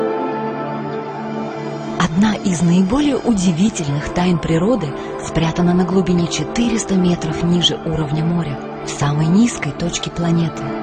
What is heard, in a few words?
russian documentaries